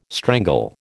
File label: "strangle", American